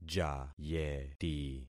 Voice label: "jayeti", concat syllables; Affixing experiment

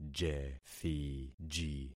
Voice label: "jethiji", concat syllables; Affixing experiment

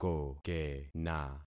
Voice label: "kokena", concat syllables; Affixing experiment

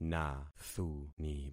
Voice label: "nathuni", concat syllables; Affixing experiment

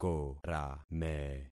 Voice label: "korame", concat syllables; Affixing experiment

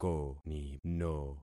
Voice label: "konino", concat syllables; Affixing experiment

